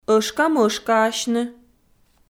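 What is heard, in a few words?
"ӧшкамӧшкаасьны", Komi, female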